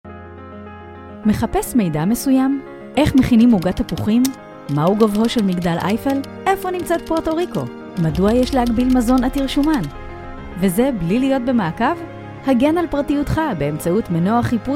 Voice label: spot radio hebreu; maximini